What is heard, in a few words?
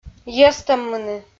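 "йӧзтӧммыны" Komi, female; audio dict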